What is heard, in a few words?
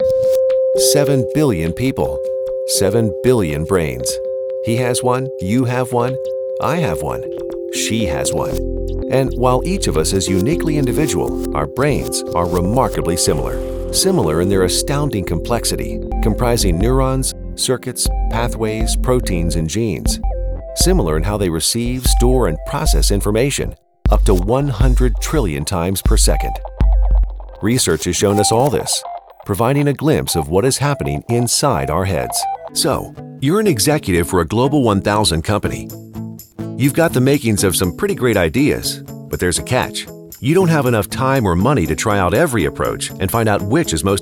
narration